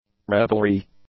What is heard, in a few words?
"revelry", English; nouns